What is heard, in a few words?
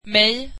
swedish me